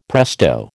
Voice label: "presto", American

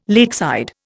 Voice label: "lakeside", Indian

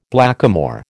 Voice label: "blackamoor", American

